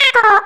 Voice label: rage; isabelle